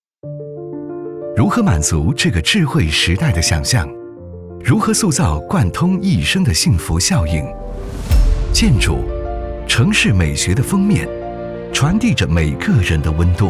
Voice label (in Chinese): 男 专题 大气 科技; 同信地产